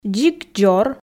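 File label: "дзик дзор", Komi, female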